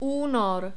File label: "unor", czech